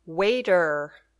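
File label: "waiter", English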